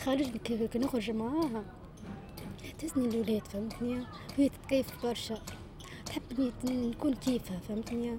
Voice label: woman in cafe